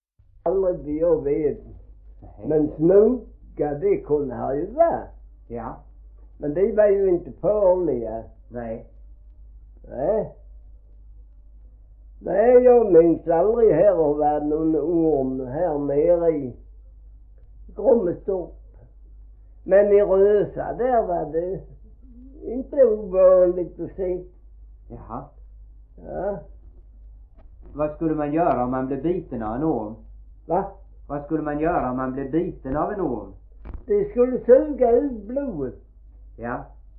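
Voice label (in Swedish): Gråmanstorp Skåne, dialekter; Folkminnen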